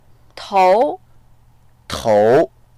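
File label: "tou2", Mandarin Chinese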